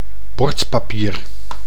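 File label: "bordpapier", Dutch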